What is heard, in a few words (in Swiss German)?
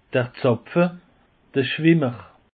"zapfe", alsace; peche